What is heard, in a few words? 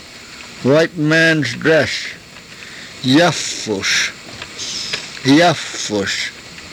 "yafus", karuk